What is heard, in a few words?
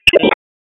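Raleigh Police North District Tactical; wake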